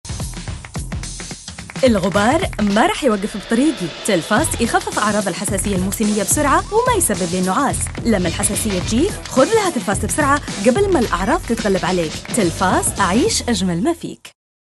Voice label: Saudi Najdi